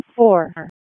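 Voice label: Police Special Operations Tactical; Raleigh; wake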